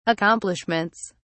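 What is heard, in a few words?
"accomplishments", British English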